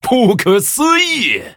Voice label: Male Human Wow, zh

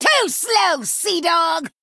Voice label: Female Pirate Attack; en

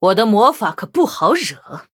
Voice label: Threaten, zh

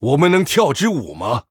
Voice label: Male Human Greetings, zh

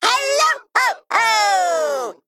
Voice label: Male Mech HAPPY HOLIDAYS; en; AnnoyO

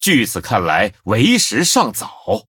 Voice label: Male Blood Elf Mirror Start; zh

